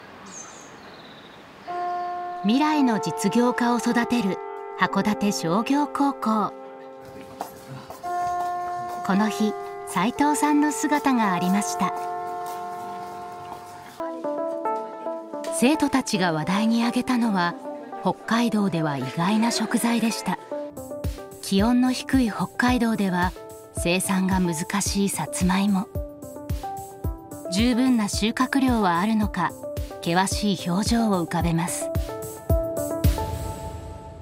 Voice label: female, Japanese corporate narration; 7 and i holdings co ltd; Devine Voices

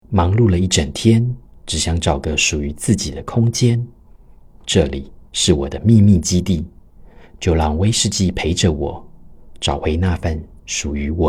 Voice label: male taiwanese mandarin e-learning

